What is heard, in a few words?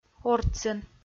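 "ортсын", Komi, female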